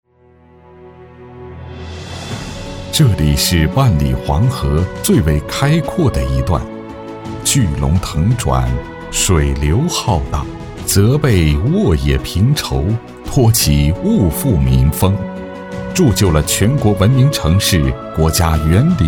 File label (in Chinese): 男 专题; 长垣河务局